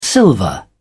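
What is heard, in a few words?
"silver", British English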